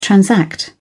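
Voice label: "transact", British English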